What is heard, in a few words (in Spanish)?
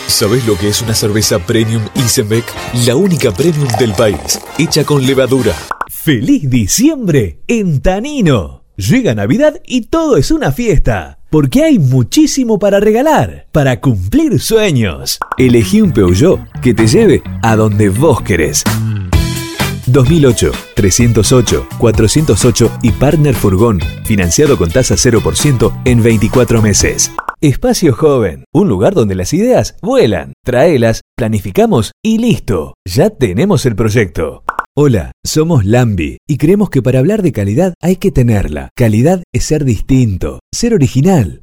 rioplatense